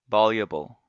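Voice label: "voluble", US English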